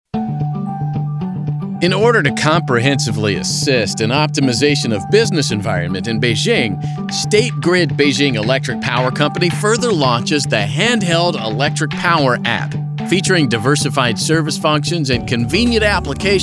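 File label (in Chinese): 外籍男英_外语_美式英语_轻松; 斯地瑞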